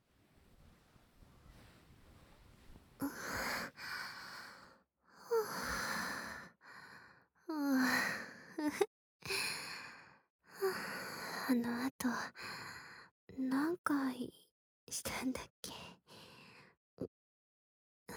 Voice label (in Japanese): ピロートーク, 博多弁彼女; 二人きりのお家デートばい♪２; 博多弁彼女２